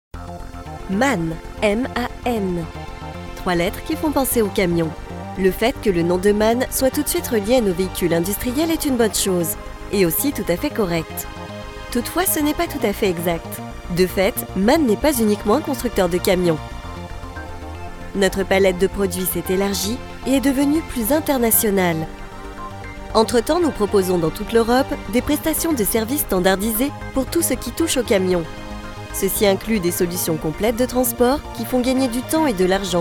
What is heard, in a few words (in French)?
Voix Serieuse explicative, voix off institutionnel corporate; MAN